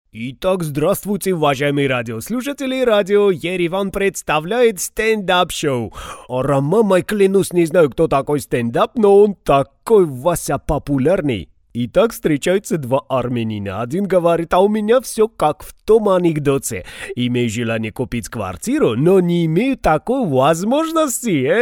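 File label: Parod Armyanin, parody Armenian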